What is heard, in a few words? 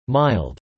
"mild", English